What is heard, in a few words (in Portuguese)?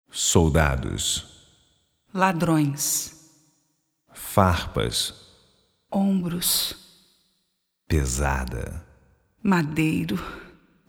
Narração